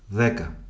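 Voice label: "Deka", male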